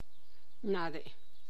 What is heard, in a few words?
"narrow", tlacochahuaya